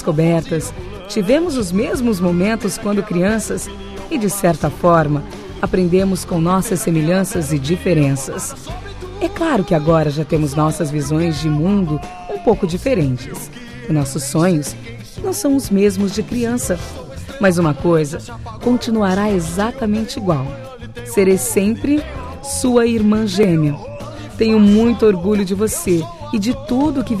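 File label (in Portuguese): Aniversário de Irmão Gêmeo, female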